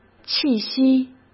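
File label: "气息", Mandarin Chinese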